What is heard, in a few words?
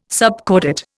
"subcordate", Indian